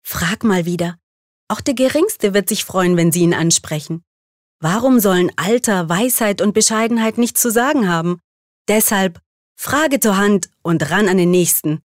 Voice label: Werbung Freundlich

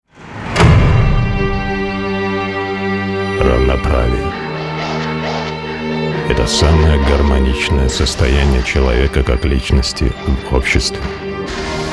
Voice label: Sanft, RU

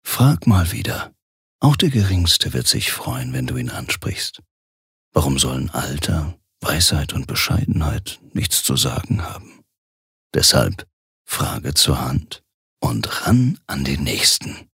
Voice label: Werbung, Sanft

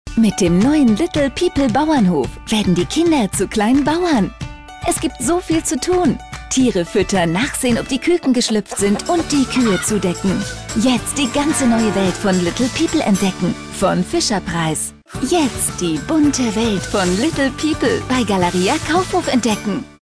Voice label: Kindlich

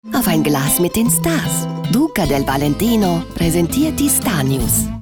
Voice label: Akzent Italienisch

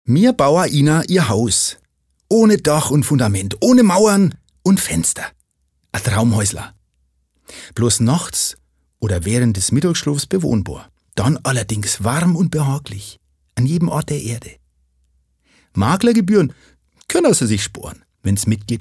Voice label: Dialekt Fraenkisch

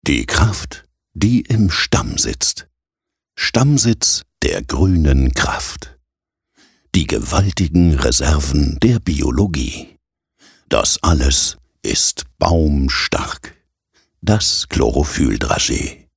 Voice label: Werbung Emotional

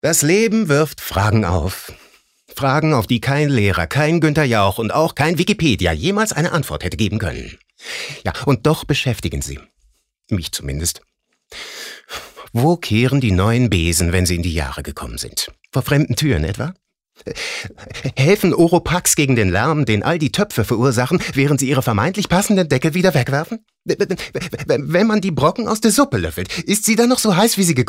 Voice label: Schauspiel Variabel

